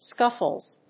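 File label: "scuffles", English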